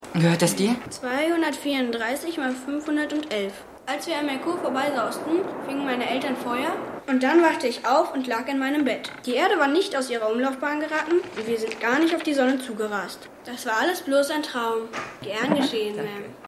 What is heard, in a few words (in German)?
Junge; Stuart; AusgerechnetAlaska